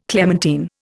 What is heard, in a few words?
"clementine", Indian